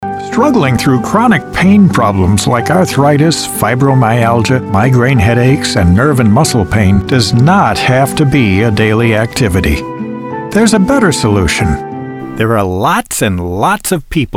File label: Character Commercials VO